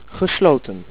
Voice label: "CLOSED", Dutch